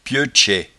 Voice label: "pieutchets", patois jura